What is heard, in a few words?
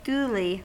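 "duly", US English; pronunciation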